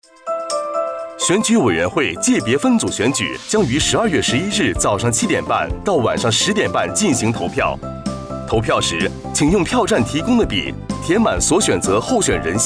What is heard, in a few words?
radio voting